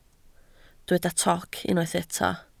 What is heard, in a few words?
"toc", Welsh